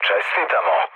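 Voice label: Serbian player killed enemy mood low; speech; War Thunder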